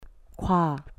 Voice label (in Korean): "gua", Korean